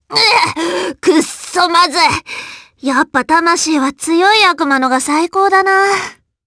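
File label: vox dialogue, voices, Japanese; Viska; kingsraid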